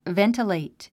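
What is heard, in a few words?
"ventilate", English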